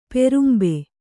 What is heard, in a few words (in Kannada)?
"ಪಾರಂಬೆ", Kannada